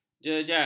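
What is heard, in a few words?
"jejal", Malay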